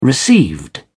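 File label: "received", British English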